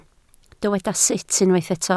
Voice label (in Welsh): "sut", Welsh